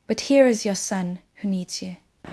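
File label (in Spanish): Voice Acting, Spanish, female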